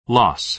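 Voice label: "loss", American English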